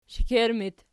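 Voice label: shekerttu locative, Archi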